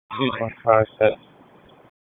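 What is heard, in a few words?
Police Dispatch; Raleigh; Northeast District; p25; wake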